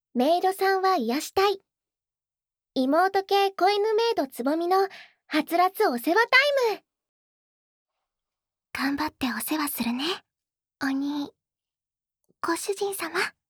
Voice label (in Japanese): タイトルコール, ASMR